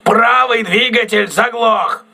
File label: voice message right engine damaged, crew ships russian